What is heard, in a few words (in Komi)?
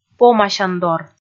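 "помасяндор", Komi, female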